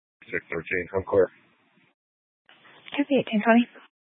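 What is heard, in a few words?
Police Dispatch, Scanner audio recording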